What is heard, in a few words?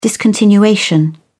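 "discontinuation", English, British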